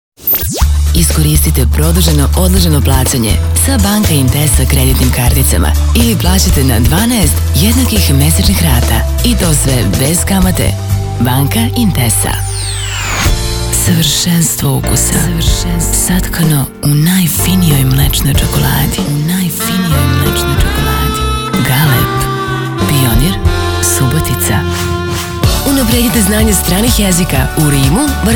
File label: voiceover